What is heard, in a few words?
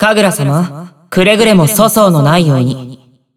System Voice Character Select; BBCF; Hibiki Kohaku; Kagura Mutsuki